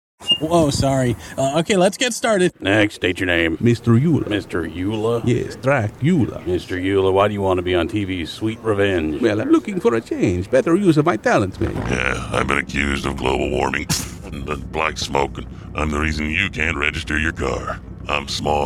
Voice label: Accents Characters